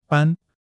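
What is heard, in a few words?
"ban1", Mandarin Chinese; HSK3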